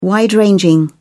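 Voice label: "wide-ranging", British English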